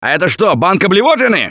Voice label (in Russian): boomer jar, left4dead2 russian, Survivor Voice; Gambler